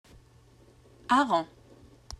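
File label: "herring", French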